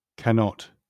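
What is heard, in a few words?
"cannot" English, British accent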